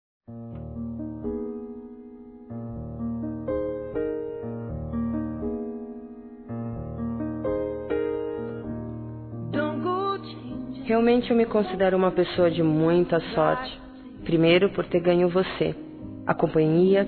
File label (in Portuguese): Esposo Feminino; Dia Dos namorados; Telemensagens 2017